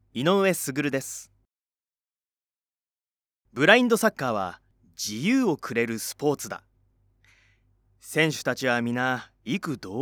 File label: narration